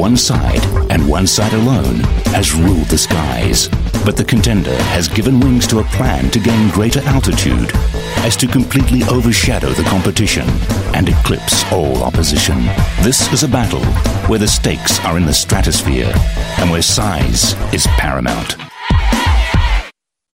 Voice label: AU Mid Atlantic English Dogfight, English Australian Male